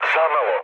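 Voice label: Serbian bomb failure mood low, speech; War Thunder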